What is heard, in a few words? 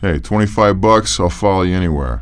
25 bucks, male; actors; hiredguy; KINGPIN